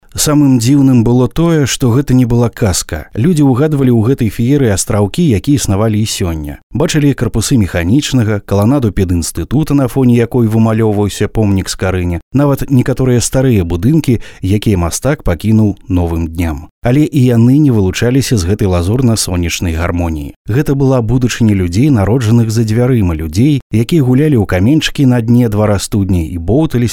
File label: Belarusian male